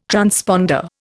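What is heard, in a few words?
"transponder", Indian English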